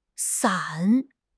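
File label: "san3", Chinese; HSK3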